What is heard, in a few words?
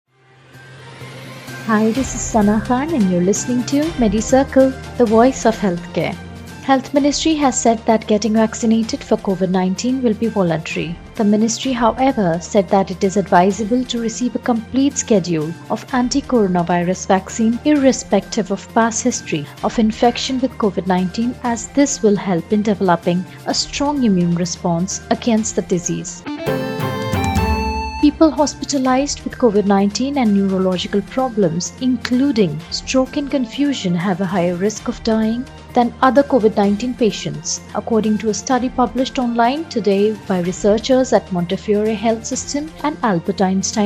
english news